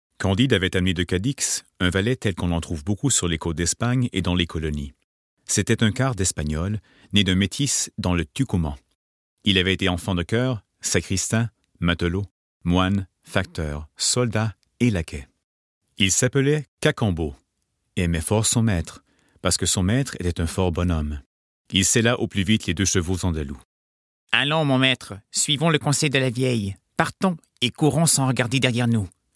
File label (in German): FR Hörbuch; Candide; LOFT VOICES